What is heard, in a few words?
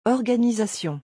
"organisation", French